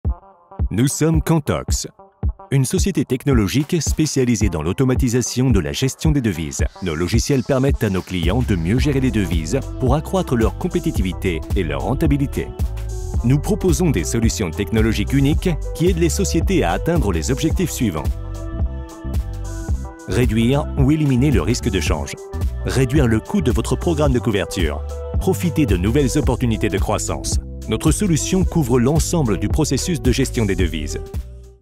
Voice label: corporate; kantox